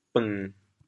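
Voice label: "beng1", Teochew, chaoyang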